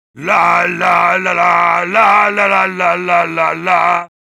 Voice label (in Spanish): Heavy cheers; «¡La, la, lalá, la, lalala lalalá!»; usando el comando de voz «ovación»